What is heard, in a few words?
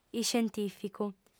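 "iscientìficu", Sardinian, female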